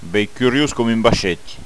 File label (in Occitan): "curios vaisheth", Gascon